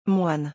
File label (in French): "moine", French